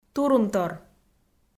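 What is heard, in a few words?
"турунтор", Komi, female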